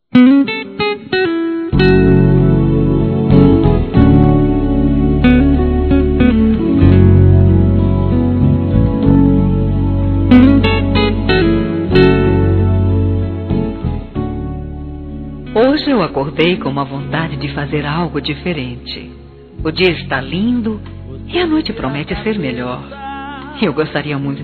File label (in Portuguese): Convite Para Sair Feminino, Telemensagens Românticas